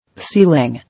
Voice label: "Ceiling", English